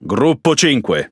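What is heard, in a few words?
Italian speech Pilots Bomber; World Of Warships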